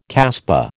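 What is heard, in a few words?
"casbah", American English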